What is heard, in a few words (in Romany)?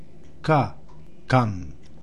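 "Kan", Romani; Romska-alfabetet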